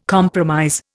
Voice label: "compromise", Indian